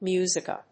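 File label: "Musica", English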